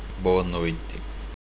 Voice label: good nigh, portuguese

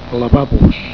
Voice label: "Lavabos", Catalan

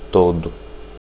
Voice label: all, portuguese

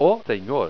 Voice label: "o senhor", portuguese

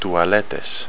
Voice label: "touale'tes", Greek

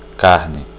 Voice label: beef, portuguese

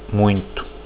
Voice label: alot, portuguese

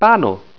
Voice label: "pano", esperanto

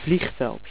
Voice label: "airport", Dutch

